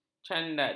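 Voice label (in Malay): "candat", Malay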